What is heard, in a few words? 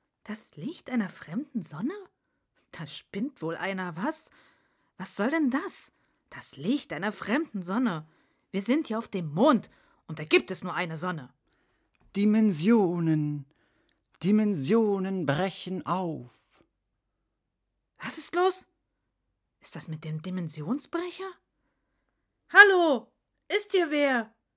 Dialog; Perkins